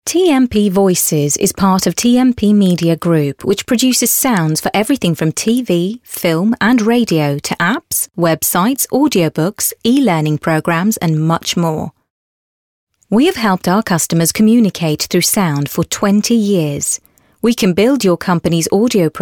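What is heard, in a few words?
English UK, female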